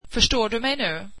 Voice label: swedish do you understand me now

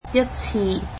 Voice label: "once", Cantonese

